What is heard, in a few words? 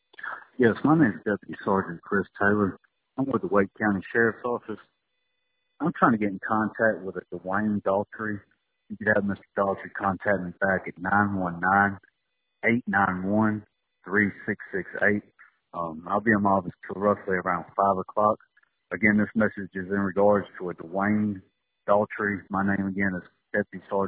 voicemail